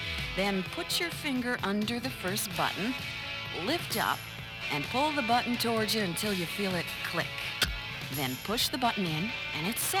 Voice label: car radio tuning promo; Laser 558